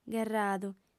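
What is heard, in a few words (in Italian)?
"gherradu", lingua sarda, female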